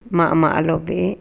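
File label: Maya, male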